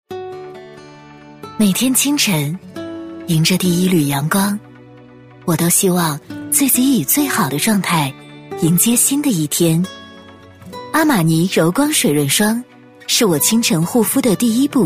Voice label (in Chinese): 女, 品质广告, 柔光水乳霜, 舒缓磁性; 质感磁性; 阿玛尼柔光水乳霜